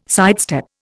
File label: "sidestep", Indian